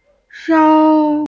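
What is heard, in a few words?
"sou1", Mandarin Chinese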